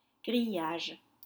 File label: "grillage", French; grid